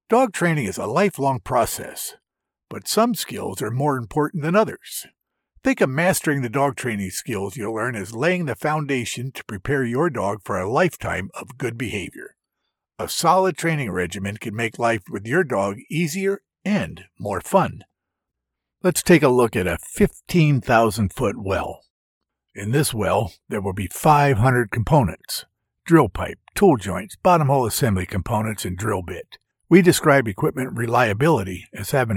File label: e-Learning